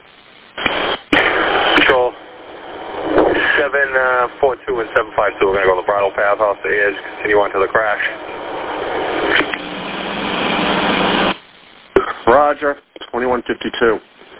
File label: Police Radio